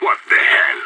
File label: friendly fire, radio; IDF